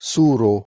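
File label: "suh1ro", Proto-Indo-European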